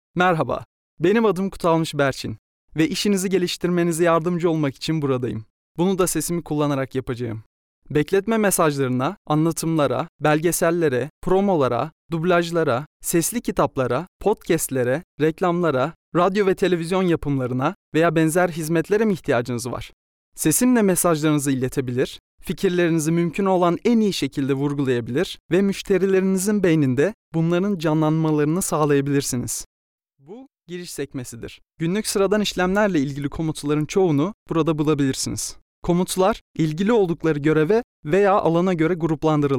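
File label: Turkish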